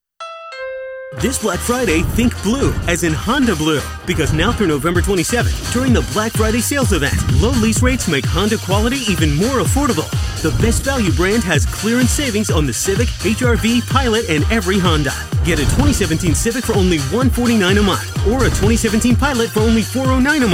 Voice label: English American automotive

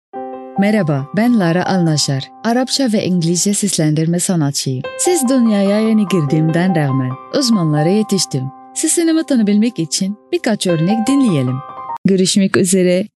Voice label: turkish commercial

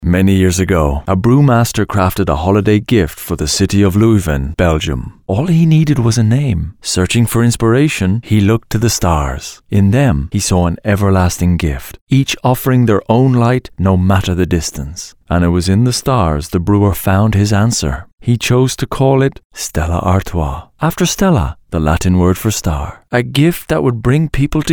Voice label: english irish promos

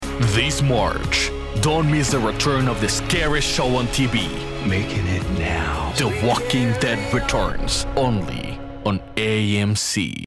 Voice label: english promos

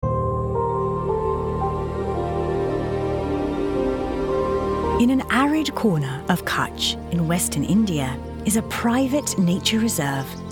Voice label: english british documentaries; comédienne de doublage professionnelle britannique; voice actors

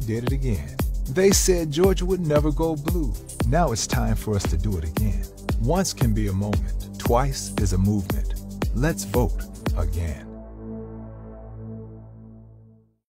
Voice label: english american political ads; dublador profissional afro-americano que fala inglês

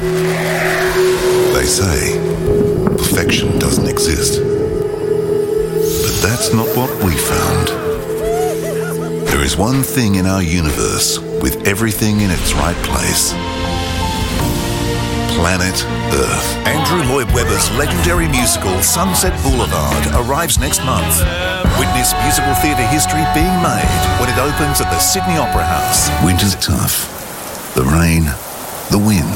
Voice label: english australian commercial

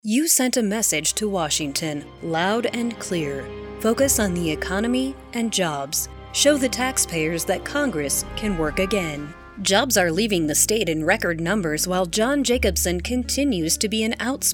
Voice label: english american political ads

